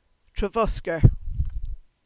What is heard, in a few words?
"Treviscoe", "Trevosker"; place names